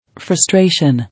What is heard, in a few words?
"Frustration", English